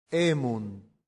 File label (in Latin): "emunt", Latin; santillana